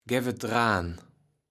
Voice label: "gäfetdraan", Kaevels Platt